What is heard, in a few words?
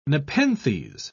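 "nepenthes", British English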